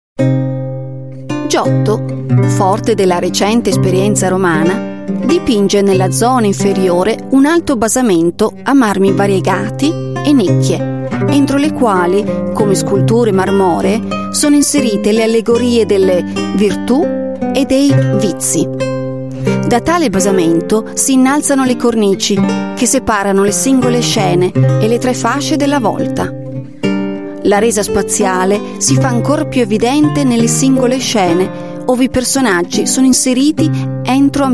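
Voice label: Italian Female Documentary; Giotto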